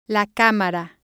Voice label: "camera" Spanish